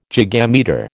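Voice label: "gigametre", American English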